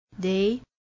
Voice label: "day", Singapore accent